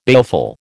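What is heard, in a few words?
"baleful", American English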